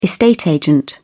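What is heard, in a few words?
"estate agent", British English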